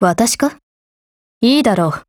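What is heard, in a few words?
formation, Japanese; Hunter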